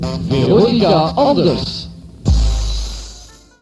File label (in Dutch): Jingle gesproken